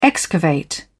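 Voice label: "excavate", British English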